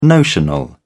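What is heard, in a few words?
"notional", British English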